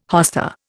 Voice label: "hosta", Indian